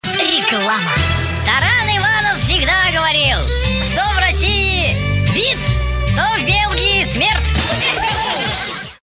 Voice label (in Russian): Reklama